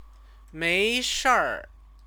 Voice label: "meishir", Chinese; Chinese lessons